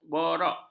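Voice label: "borok", Malay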